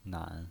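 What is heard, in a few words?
"nan", pinyin voice, Mandarin Chinese, third tone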